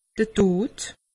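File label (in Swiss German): "tod", Alsatian; medecine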